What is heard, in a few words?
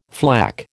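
"flak", American English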